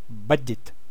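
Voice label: "budget", American English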